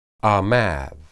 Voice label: "amad", Hebrew